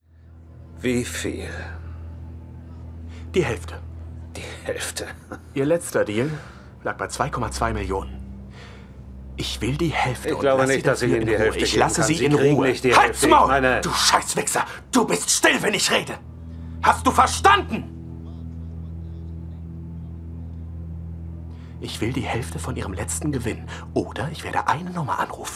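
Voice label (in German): Synchron, Sprecher, Männer; Startup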